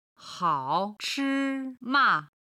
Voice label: "hao3 chi1 ma5", chinese